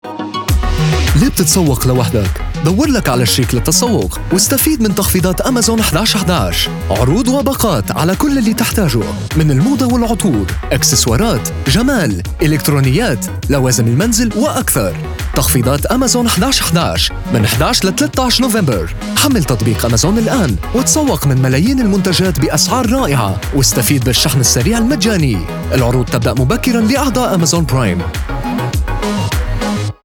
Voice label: arabic promos